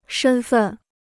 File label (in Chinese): "身份", Chinese